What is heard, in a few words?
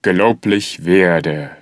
german Religious; age2